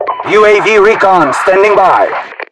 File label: uav recon, RU; mpvoice